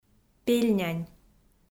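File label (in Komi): "пельнянь", Komi, female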